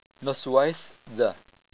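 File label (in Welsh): "noswaith", Welsh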